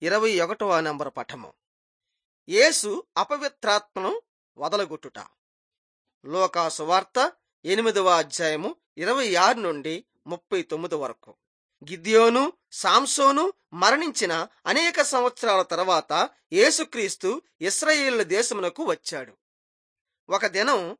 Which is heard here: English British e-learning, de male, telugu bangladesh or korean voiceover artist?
telugu bangladesh